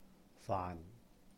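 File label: "faahn", Cantonese; rice (a meal); 飯; faan6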